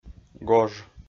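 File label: "гож", Komi, male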